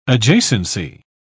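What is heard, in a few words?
"adjacency", British English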